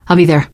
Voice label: im with you, teengirl; survivor voice